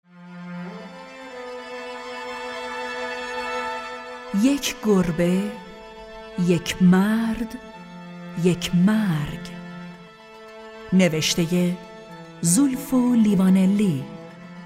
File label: announce